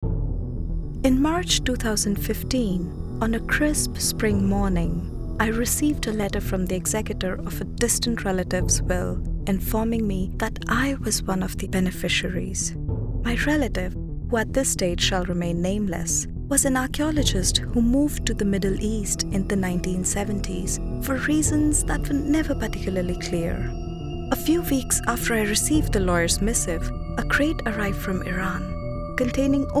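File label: female voice, English accent, Hindi; voice demos; GoLocalise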